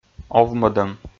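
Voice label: "овмӧдӧм", Komi, male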